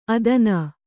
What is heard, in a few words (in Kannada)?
"ಅಧೀನೆ", Kannada